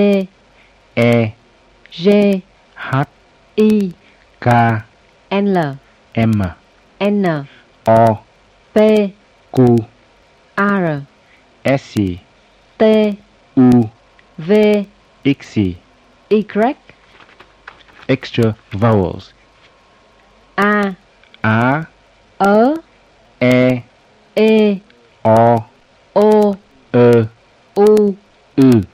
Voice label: Vietnamese alphabet